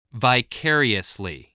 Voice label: "vicariously", English

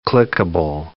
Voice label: "clickable", English

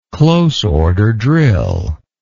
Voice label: "close order drill", English

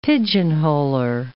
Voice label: "pigeonholer", English

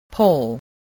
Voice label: "pole", English

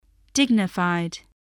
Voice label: "dignified", english spelling

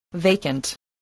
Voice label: "vacant", English

